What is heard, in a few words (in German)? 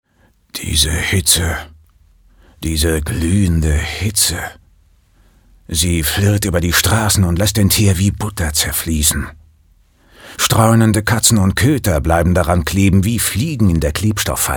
Schauspiel Rau